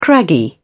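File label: "craggy", British English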